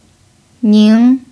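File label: "ning2", Chinese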